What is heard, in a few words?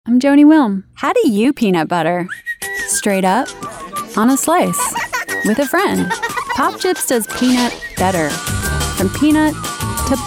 Commercial